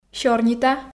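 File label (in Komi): "сёрнита", Komi, female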